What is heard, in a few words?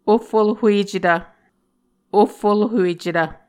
"ohfulhuecetv", Muscogee